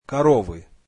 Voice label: russian cows